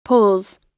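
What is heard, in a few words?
"pulls", American English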